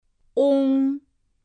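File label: "ong", Mandarin Chinese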